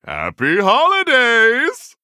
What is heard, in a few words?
Male Human happy holidays